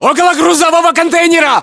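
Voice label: landmark near cargo container, battlechatter, Russian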